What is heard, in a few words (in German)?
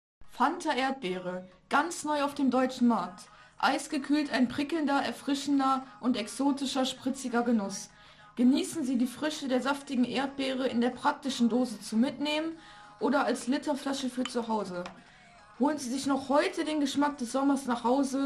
radiospot